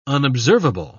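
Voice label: "unobservable", British English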